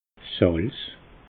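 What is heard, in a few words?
"salz", Alsatian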